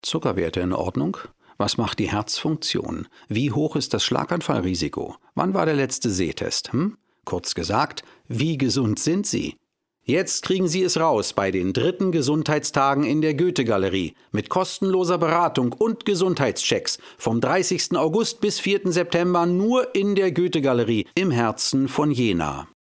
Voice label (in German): Werbung; Goethe Galerie